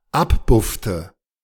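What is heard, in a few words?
"abbuffte", German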